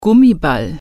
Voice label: "rubber ball", German